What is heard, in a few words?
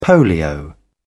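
"polio", British English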